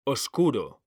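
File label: "oscuro", Spanish, male; mekanta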